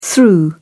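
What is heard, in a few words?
"thru", British English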